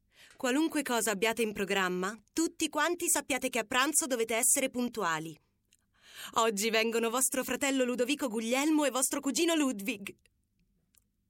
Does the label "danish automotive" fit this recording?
No